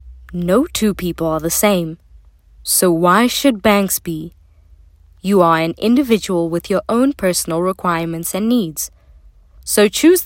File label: English Corporate